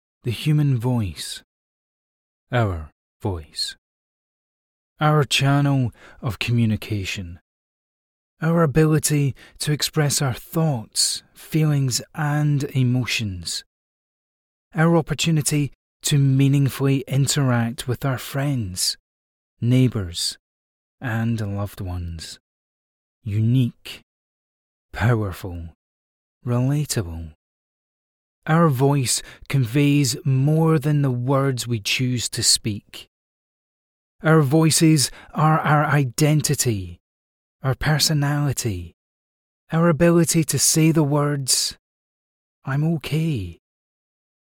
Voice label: english scottish audiobooks